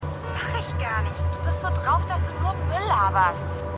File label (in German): Frau; Frau Bei Vanessa; New Amsterdam